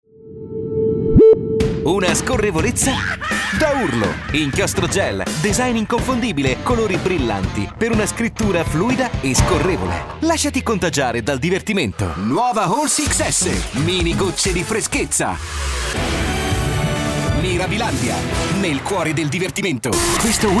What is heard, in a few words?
ITALIAN VOICES Young Energetic, male voices